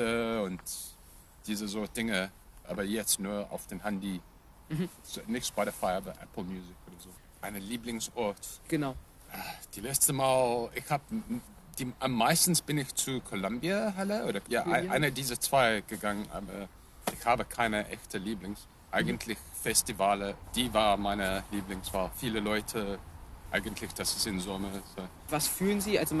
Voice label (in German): Strassenumfrage Musik